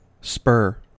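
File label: "spur", US English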